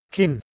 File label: "kinn", Proto Germanic